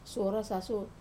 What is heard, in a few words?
"suora sasu", sarong, wamesa